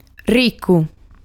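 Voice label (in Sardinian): "Ricu", Sardinian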